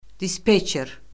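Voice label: "dispetçer", Azerbaijani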